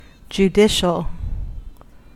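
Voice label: "judicial", US English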